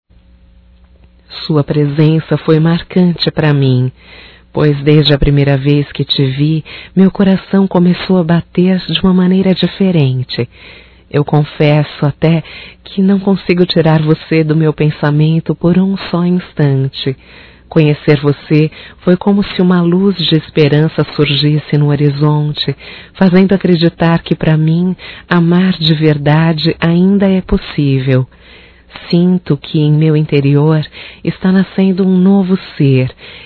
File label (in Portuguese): Romântico Feminino